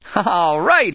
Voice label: cheer; voice commands; otis